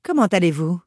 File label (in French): comment allez vous, human female; PhoenixCraft-1.16.5; PhoenixNPCPack; minecraft